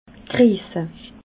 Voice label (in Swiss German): "greese", alsace